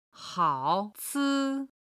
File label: "hao3ci1", Chinese